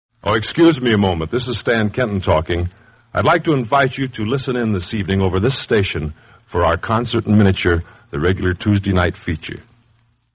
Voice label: promos for concert in miniature